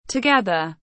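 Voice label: "together", English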